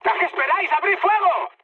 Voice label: attack position, mood high, speech; War Thunder

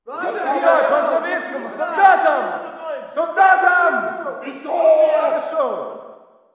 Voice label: walla rus mountain battle

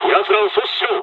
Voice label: dialog, dialogs japanese; War Thunder

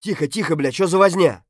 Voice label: silence; Escape from Tarkov; scav3

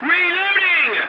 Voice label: voice message reloading, english; War Thunder

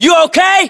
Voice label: status check, us

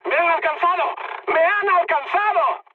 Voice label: aircraft destroyed mood high; War Thunder

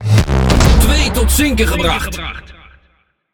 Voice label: NL Speech Double Kill; World Of Warships

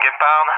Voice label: "Gepard", ENG; AirDefence; Allied Flight; DCS

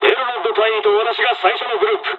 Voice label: dialogs japanese; War Thunder

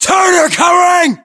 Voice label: response covering, battlechatter us; localized english

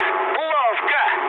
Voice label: trick, speech ru

